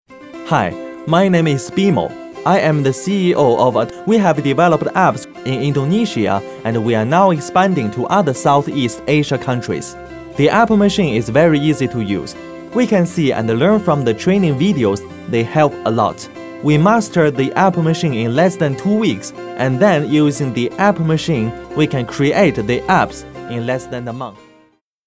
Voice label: English Corporate; App Creation